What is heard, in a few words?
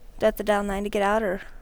English do you have to; spontaneous speech